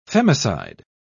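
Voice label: "femicide", British English, dictionary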